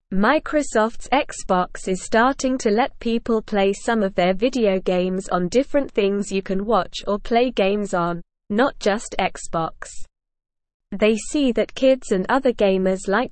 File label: English Beginner SLOW Reading; Xbox and PlayStation want more people to play games on differ